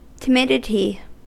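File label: "timidity", US English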